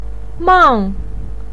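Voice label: "mang", Mandarin Chinese fourth tone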